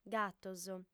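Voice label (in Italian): "gatos", lingua sarda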